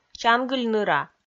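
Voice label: "чангыль ныра", Komi, female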